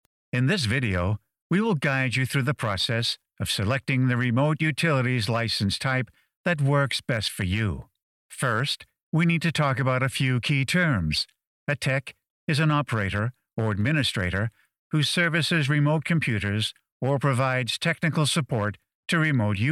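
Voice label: Canadian English, male, voice over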